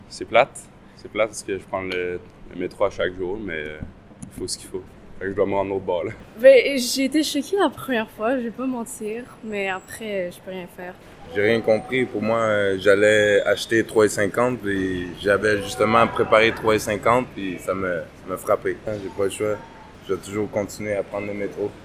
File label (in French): Vox Pop Usagers